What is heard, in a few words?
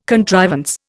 "contrivance", Indian